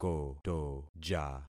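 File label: "kotoja", concatenated syllables; Affixing experiment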